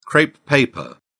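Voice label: "crepe paper", British English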